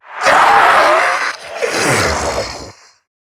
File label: Death, en; Zariman Wraith